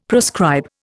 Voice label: "proscribe", Indian; Dictionary